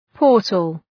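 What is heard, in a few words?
"portal", English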